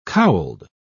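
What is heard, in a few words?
"cowled", British English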